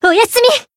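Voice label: Voice finish, Japanese; flammelapis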